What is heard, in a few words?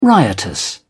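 "riotous", British English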